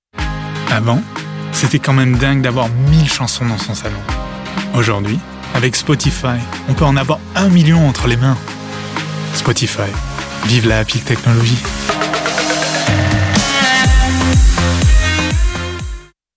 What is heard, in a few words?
Commercial French